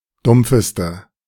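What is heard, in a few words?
"dumpfeste", German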